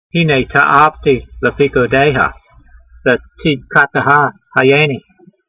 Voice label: voice, hebrew language; Psalm 119:40; heenay ta'avtee le'feequdeyha, be'tseedkateha hayaynee